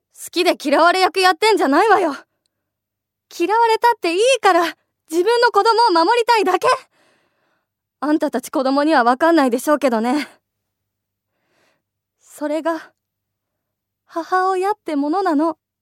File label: female voice